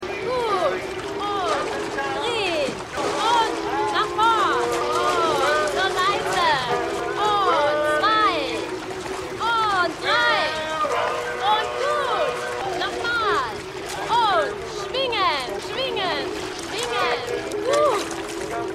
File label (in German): Trainerin; Fargo